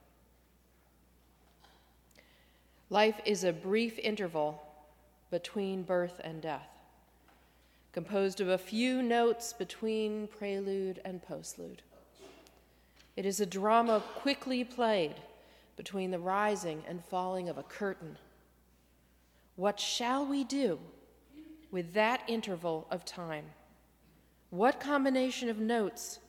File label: meditation